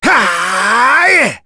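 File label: Vox Casting, voices heroes English; kingsraid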